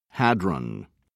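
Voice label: "hadron", British English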